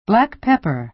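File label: "black pepper", American English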